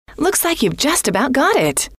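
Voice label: looks like you ve just about got it, female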